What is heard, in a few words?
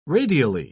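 "radially", British English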